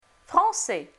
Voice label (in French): français, francais Phonetique Consonnes